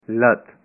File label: leg, punjabi